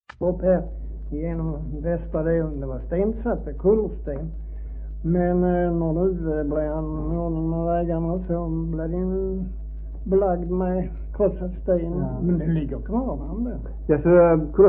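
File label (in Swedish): Maglehem Skåne, dialekter; Folkminnen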